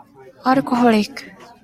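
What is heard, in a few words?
"alcoholic", Japanese, female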